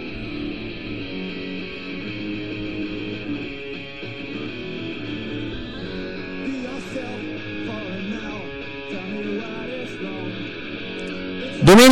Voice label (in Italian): spot happy noise